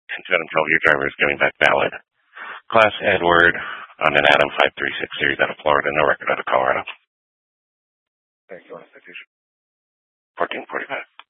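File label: Dispatch, Scanner audio; Troop 2D Dispatch (Huerfano, Pueblo, W. Las Animas)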